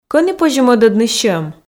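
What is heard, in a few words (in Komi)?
кӧні позьӧ мӧдӧдны сьӧм, Komi, female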